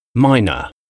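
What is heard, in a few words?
"minor", English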